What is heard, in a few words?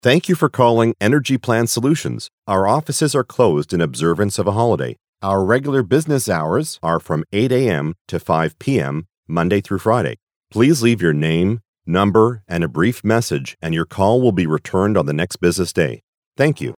IVR Telephony, Corporate Professional; Energy Plan Solutions